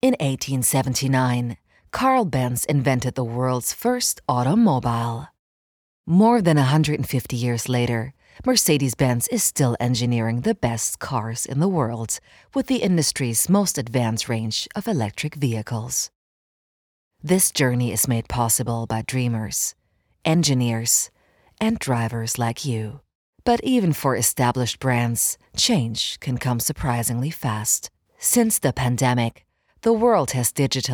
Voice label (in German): Spot; Mercedes